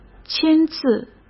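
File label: "签字", Mandarin Chinese; chinese flashcards; hsk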